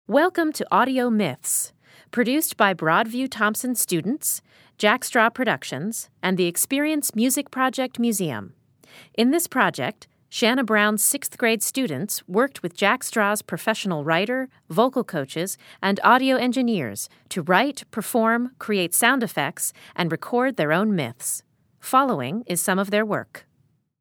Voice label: Opening Narration; Broadview-Thomson Audio Myths 2013